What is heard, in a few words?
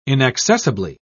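"inaccessibly", British English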